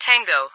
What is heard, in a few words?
"Tango", NATO Alphabet